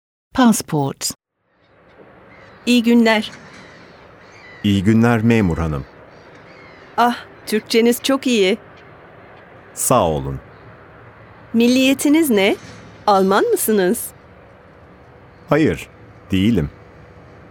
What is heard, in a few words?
Dialogue, Turkish